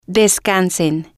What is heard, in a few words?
"descansen", spanish